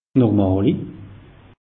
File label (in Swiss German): "normali", alsace; meteo